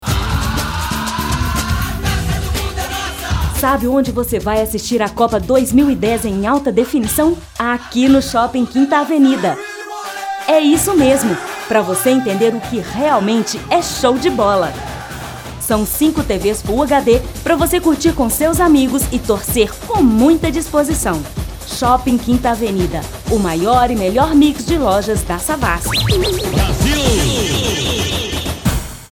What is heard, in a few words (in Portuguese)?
radio; 5avenida copa